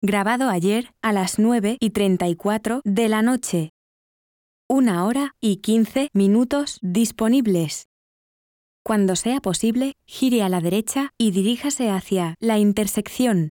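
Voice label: Spanish Spain, navigation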